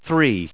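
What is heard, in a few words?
"three", US English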